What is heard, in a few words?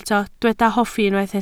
"hoffi", Welsh